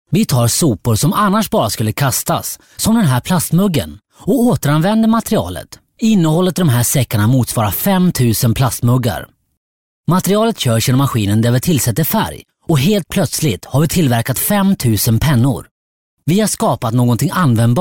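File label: Swedish, male, voice over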